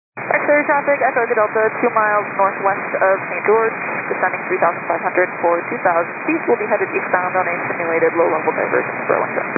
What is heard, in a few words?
122 925MHz, scanner radio